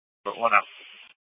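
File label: Scottsdale Police South Dispatch; Scttsdle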